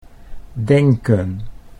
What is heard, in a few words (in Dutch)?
"denken", Dutch